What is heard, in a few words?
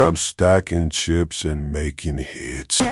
happy; fertig classic games